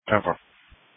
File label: Police Dispatch